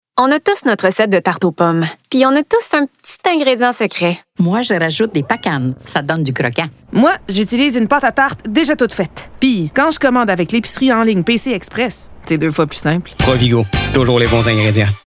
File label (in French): voix femmes; provigo pc express